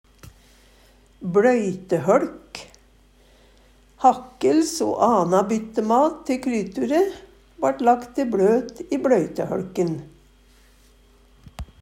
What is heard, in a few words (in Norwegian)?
"bLøytehøLk", Numedalsmål